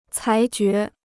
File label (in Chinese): "裁决", Chinese